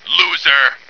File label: loser, male